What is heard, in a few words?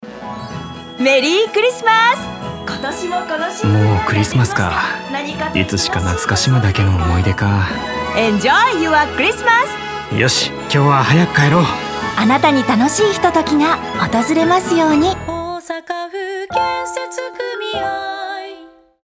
radio; osaka